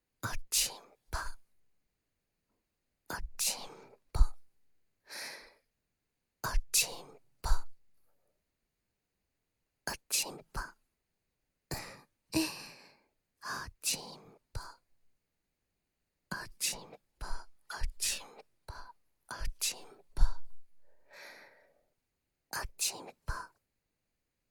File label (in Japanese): 囁き（おちんぽ・右）言语侵犯